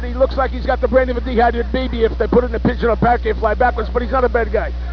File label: gibberish; lou; heroes of wrestling